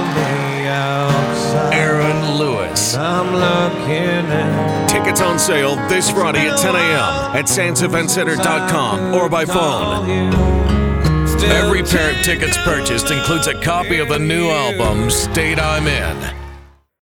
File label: Acoustic Radio Spot